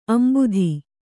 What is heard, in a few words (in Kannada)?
"ಅಂಬುದ", kannada